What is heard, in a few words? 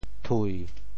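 "thui7", Teochew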